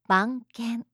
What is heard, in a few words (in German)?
"banken", japanische maedchennamen